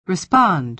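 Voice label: "respond", American English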